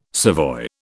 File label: "savoy", British